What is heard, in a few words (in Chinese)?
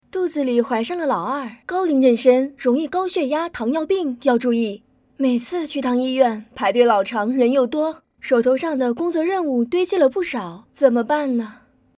医院电台广告